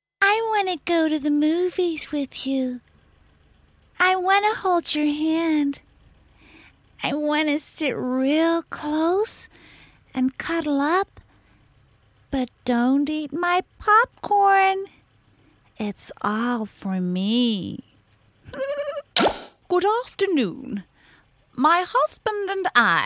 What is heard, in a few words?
character